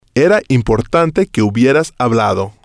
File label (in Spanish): "era Importante Que Hubieras Hablado", spanish